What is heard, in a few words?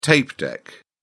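"tape deck", British English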